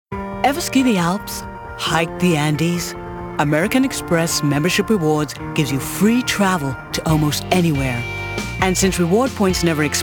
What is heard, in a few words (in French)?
Voix Publicités Anglais